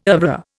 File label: "oeuvre", Indian